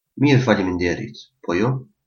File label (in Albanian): fine, albanian gheg; Mir, faliminerit, pro ju?